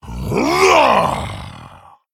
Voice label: Male Tauren Evil Fight Hero Attack